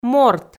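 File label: "морт", Komi, female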